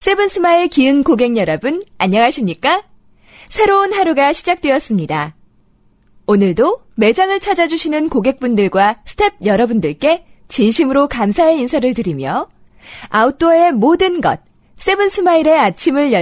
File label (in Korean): Korean; Female